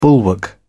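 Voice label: "bulwark", British English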